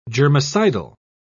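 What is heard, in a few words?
"germicidal", British English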